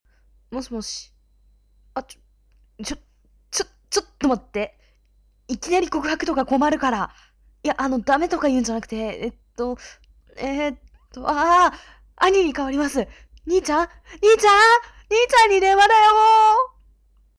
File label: boy